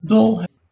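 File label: "dolh1", Proto-Indo-European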